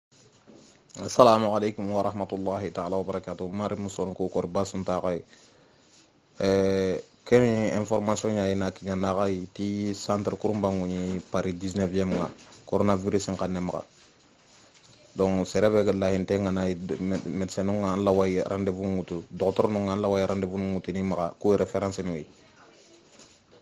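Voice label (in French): Sarakolé covid, informations multilingues; Message en Sarakole; Paris19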